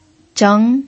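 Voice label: "zheng" Mandarin, second tone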